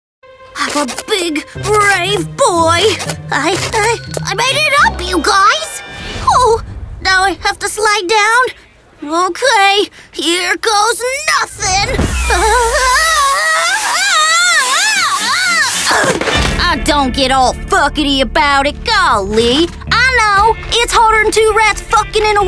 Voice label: Animation demo